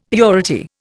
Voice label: "purity", Indian; Dictionary